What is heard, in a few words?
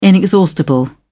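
"inexhaustible", British English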